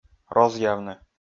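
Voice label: "розъявны", Komi, male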